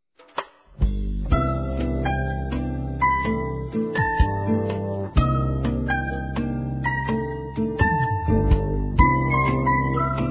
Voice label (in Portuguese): FILHOS(AS) INFANTIL F; Telemensagens 2017